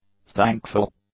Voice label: "thankful", English; adjectives